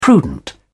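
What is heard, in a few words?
"prudent", British English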